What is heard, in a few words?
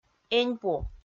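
"эньпу", Komi, female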